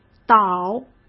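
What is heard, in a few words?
"岛", Mandarin Chinese; chinese-flashcards; hsk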